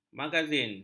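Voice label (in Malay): "magazin", Malay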